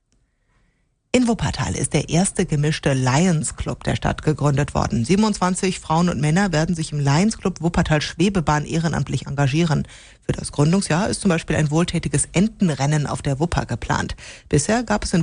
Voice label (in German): Radio Wuppertal Nachrichten gesendet; Gruendung